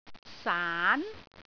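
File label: "saan2", Cantonese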